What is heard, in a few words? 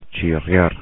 "chirriar", spanish; infinitive